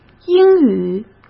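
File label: "英语", Mandarin Chinese; hsk; chinese-flashcards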